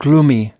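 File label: "gloomy", English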